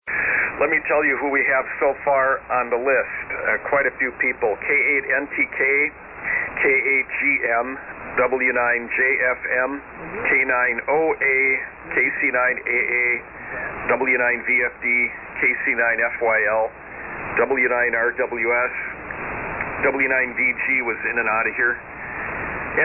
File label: SSB Net Check Ins